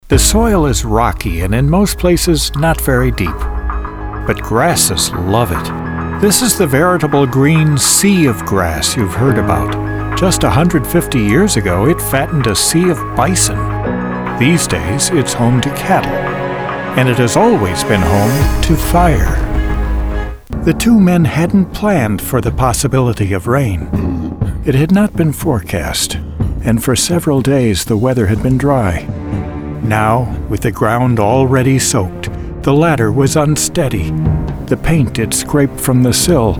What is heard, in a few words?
Broadcast Narration VO